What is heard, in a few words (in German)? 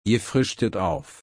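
frischen, German slow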